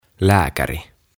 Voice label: "Lääkäri" "Doctor"

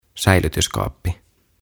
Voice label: "Säilytyskaappi" "Locker"; OTAVA KONSERNI

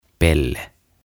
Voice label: "Pelle" "Clown"; OTAVA KONSERNI

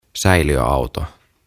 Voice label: "Säiliöauto" "Tanker"; OTAVA KONSERNI

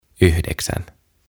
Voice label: "Yhdeksän", "Nine"; OTAVA KONSERNI

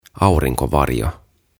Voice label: "Aurinkovarjo" "Umbrella"; OTAVA KONSERNI

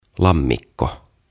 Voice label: "Lammikko", "Pond"

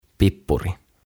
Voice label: "Pippuri" "Pepper"; OTAVA KONSERNI

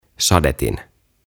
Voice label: "Sadetin", "Sprinkler"

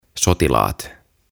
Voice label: "Sotilaat" Soldiers; OTAVA KONSERNI; tuhatsanaa; aantamisohjeet suomeksi

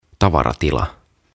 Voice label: "Tavaratila", "Boot"; OTAVA KONSERNI; tuhatsanaa; aantamisohjeet suomeksi

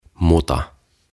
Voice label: "Muta" "Mud"; OTAVA KONSERNI

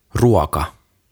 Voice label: "Ruoka" "Food"; OTAVA KONSERNI

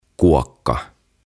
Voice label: "Kuokka" "Hoe"

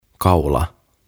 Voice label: "Kaula" "Neck"

